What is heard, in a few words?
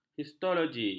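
"histologi", Malay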